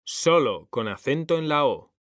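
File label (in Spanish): "sólo", male; mekanta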